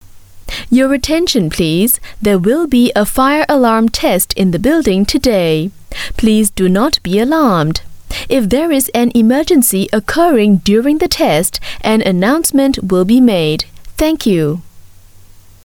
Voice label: Asian English Announcement